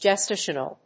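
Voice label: "gestational", English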